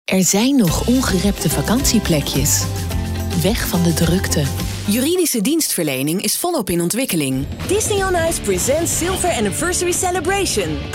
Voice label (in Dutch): commercials